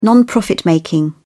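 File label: "non-profit-making", British English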